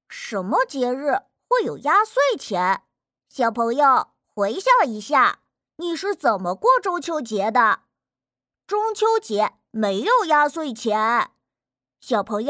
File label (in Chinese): 男童